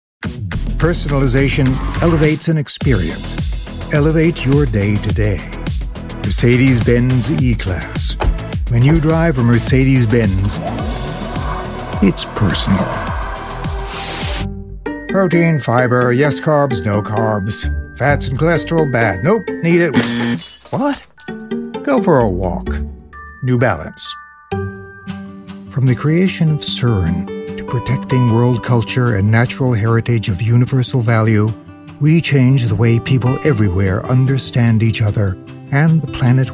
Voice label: COMMERCIAL